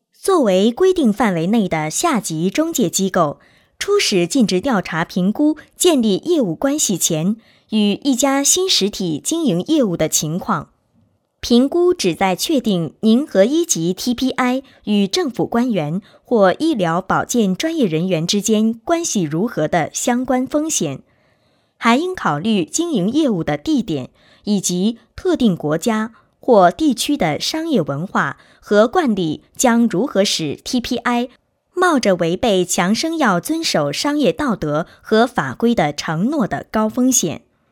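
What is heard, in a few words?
chinese mandarin female narration; voice demos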